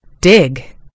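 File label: "dig", American English; gcompris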